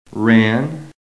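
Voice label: "ran", American English; PHONETIC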